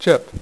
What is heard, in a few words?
"CHIP", Dealer's Speech; PowerPOKER Demo